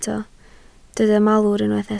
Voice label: "malwr", Welsh

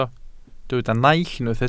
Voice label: "naill", welsh

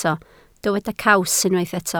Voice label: "caws", Welsh

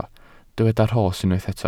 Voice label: "rhos", welsh

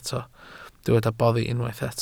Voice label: "boddi", Welsh

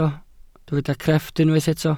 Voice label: "crefft", welsh

